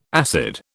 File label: "acid", British